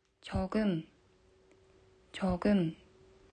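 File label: "少し", Japanese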